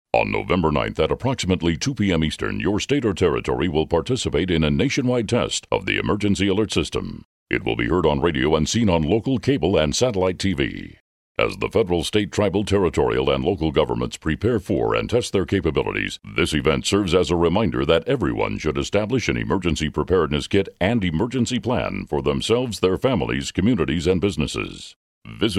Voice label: national EAS test PSA announcement